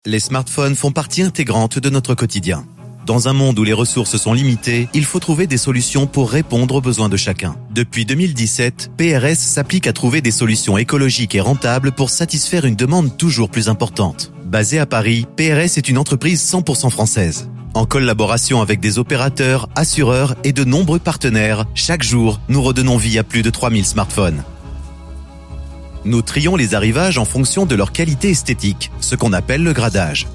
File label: french corporate videos